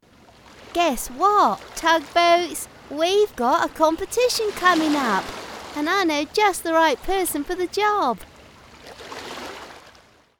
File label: english british animation